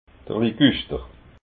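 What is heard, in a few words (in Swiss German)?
"liguschter", Alsatian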